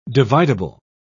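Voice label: "dividable", British English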